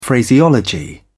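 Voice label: "phraseology", English, British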